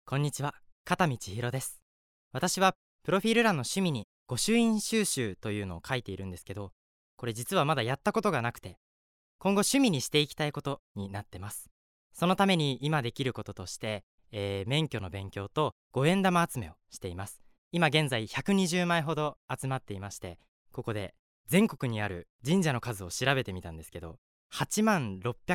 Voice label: Free Talk; フリートーク; bloomz-inc